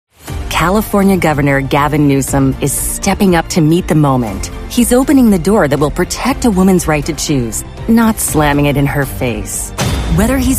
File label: english american political ads